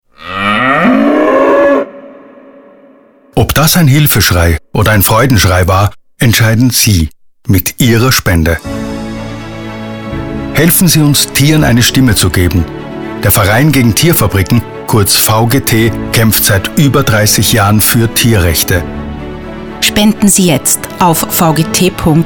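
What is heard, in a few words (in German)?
Schrei Hörfunkspot; VGT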